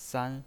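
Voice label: "san", Mandarin Chinese pinyin voice, first tone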